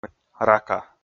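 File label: "рака", Komi, male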